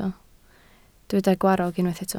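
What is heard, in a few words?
"gwarrog" Welsh, spoken word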